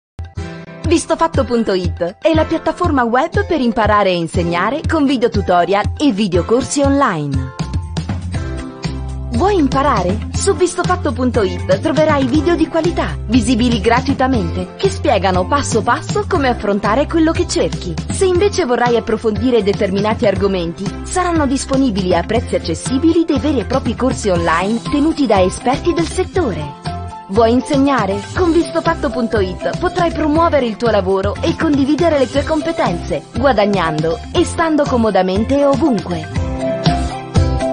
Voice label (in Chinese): 意大利语女 外语 小语种 活力